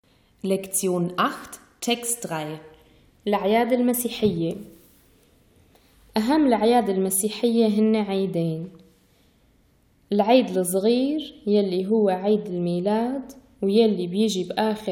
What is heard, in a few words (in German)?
christliche Feste, syrisch-arabischer Dialekt; lǝ-ʕyād ǝl-masīḥiyye